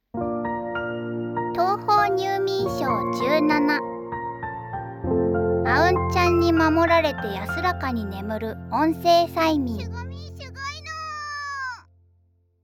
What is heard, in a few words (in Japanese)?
タイトルコール, ASMR